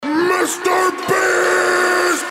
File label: rap battle announcer; mrbeast